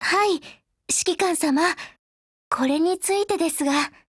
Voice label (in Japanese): TIP JP